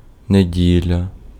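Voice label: Ukrainian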